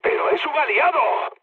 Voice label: player friendly fire, mood low, speech